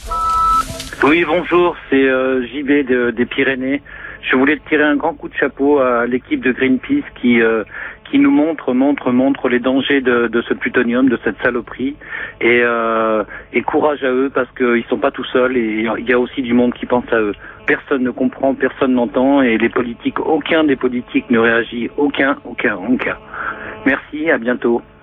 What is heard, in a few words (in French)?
répondeur; Transport plutonium